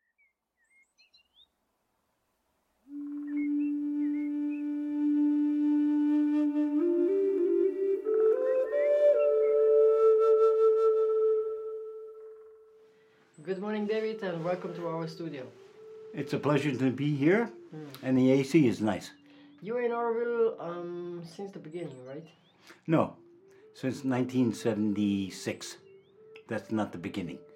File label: interview, english; forest work early years